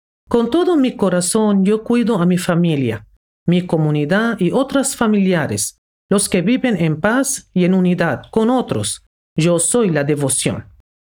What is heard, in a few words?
Spanish Female